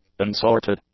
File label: "unsorted", english; adjectives